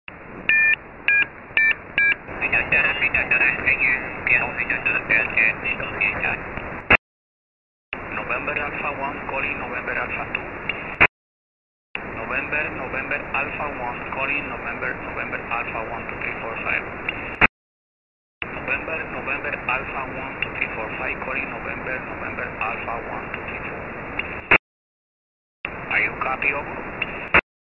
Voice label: Voice Inversion Decoded